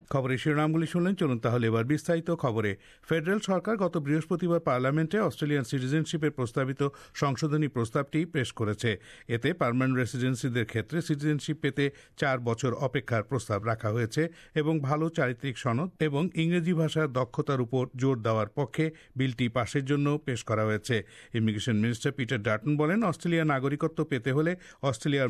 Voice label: bangla; The Turnbull Government has revealed details of its proposed revamp of Australia's citizenship test.; SBS Bangla

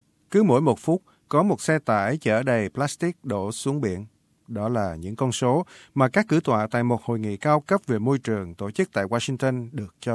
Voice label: vietnamese; SBS Vietnamese; 'Mỗi phút có một xe tải đầy plastic đổ xuống biển'

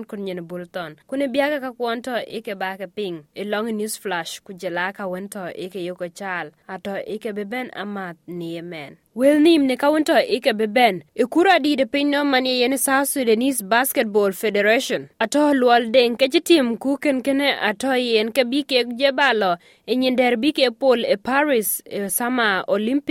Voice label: dinka; SBS Dinka News Flash 22/02/2023; Luol Deng aci kura South Sudanese Basketball Federation tiam. Kɔc ke Nigeria atɔ ke ci thuŋ bïc cot col kek döör benn

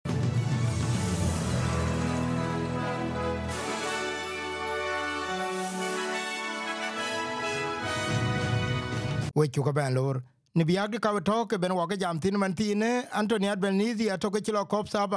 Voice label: dinka, podcast; SBS Dinka News Flash 27/06/2023; Bɛ̈nyë Auɛtralia ee jam cë man adɛ̈ ke anuaanë ciɛ̈n ɣööt aben akuma lui thïn ku bë nɔŋ të ben kɔc kuɔny.

